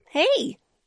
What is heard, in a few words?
bonjour, voix